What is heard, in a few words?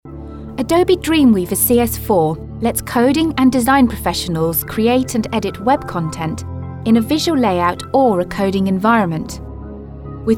E Learning